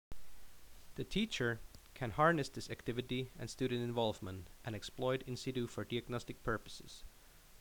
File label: Narration